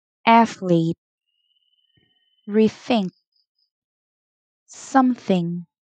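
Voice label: Two Syllable Medial θ, pronunciation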